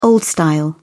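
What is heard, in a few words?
"old style", British English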